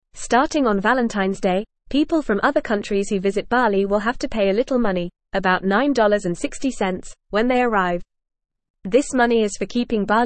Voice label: English Newsroom Beginner FAST Reading; New Money Rule for Visitors to Bali

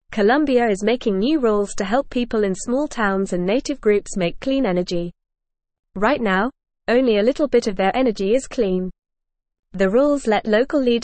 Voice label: English Lower Intermediate FAST Reading

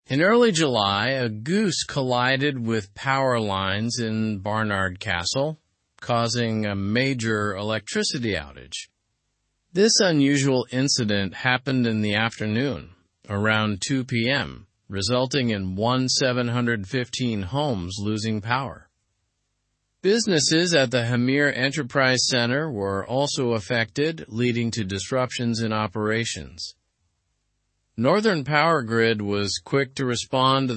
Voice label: learn english through news upper intermediate; goose triggers power outage in barnard castle; slow

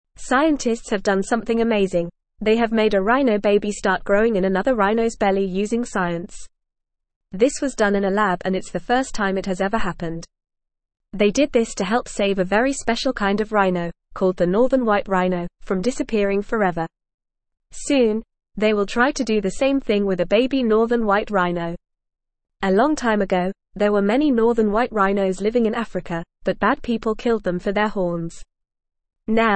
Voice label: English Lower Intermediate FAST Reading; Scientists Make Baby Rhino in Lab to Save Endangere; English Newsroom

